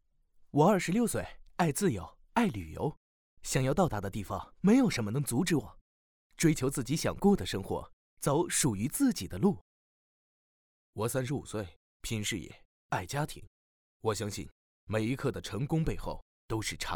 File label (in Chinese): 男声旁白; 年轻时尚飞碟说; 快车财富; 内心独白